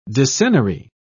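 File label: "decennary", English, British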